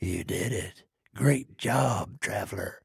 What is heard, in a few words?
trader male like quest complete